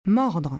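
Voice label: "mordre", French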